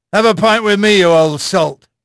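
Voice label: English male; Pirates Of The Caribbean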